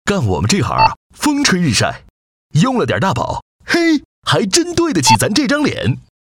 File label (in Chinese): 男 搞怪; 大宝SOD蜜